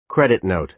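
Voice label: "credit note", American English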